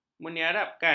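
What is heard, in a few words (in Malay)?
"meniarapkan", Malay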